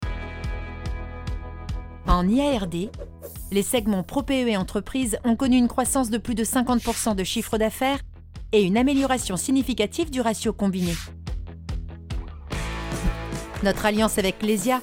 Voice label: Convention info droite, comédienne voix off; Generali

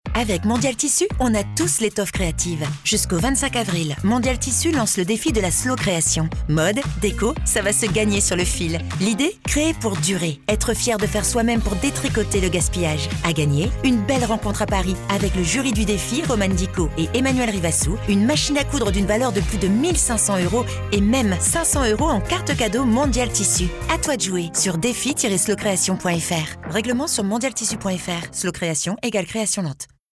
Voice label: promo douce, voix off, comédienne; Spot Radio; Mondial Tissus

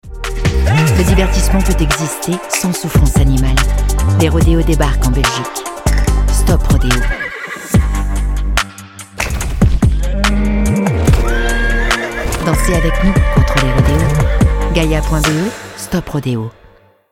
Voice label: sobre affirmée, voix off, comédienne; belgique; Stop Rodéo !

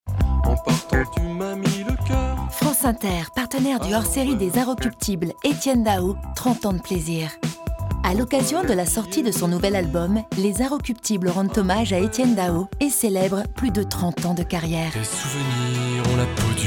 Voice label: posee souriante

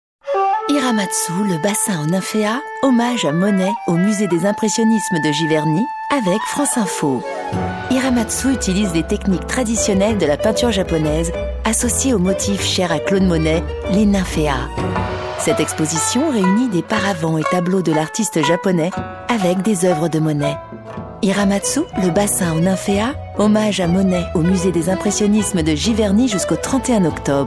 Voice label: aquatique chic, voix off comédienne; Giverny – France Info

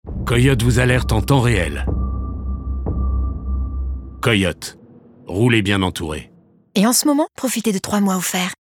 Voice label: Voix-off féminine

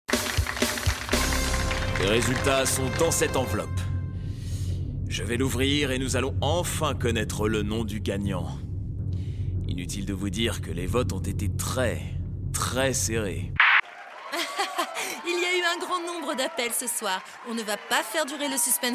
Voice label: comedie voix off; Aacc votez 1ere

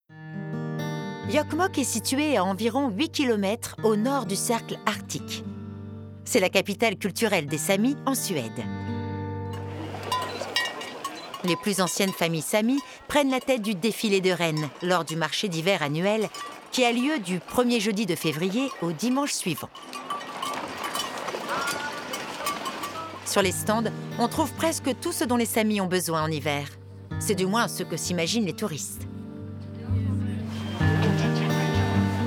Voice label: informative posée, voix off, comédienne; Doc; Arte; Les Samis

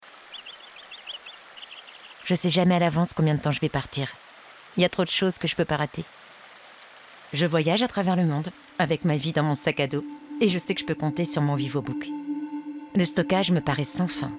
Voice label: Spot TV nature active; Assus Vivo

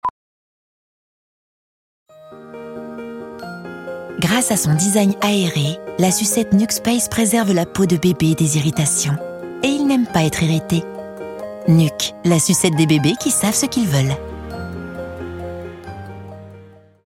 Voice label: Pub TV; Nuk dans l'oreille

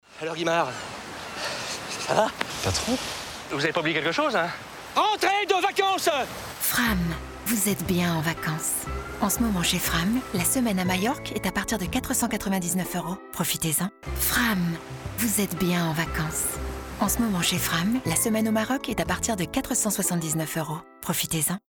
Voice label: Spot TV; Fram

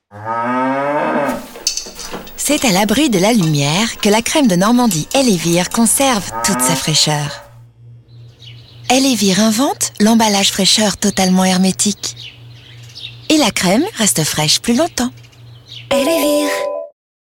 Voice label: narrative fraiche

